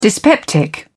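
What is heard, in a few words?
"dyspeptic", British English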